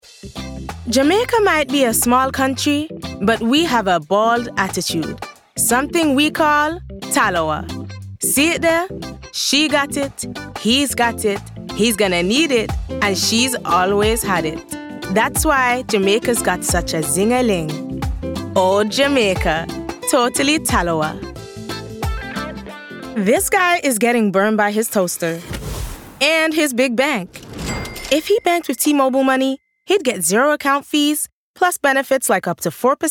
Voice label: Accent, Young, fresh and relevant; actress